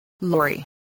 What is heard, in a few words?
"lorry", spelling